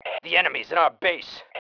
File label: enemy in base, MALE